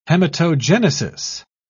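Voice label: "hematogenesis", British English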